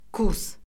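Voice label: "kurs", Azerbaijani; Orfoqrafiya Lüğəti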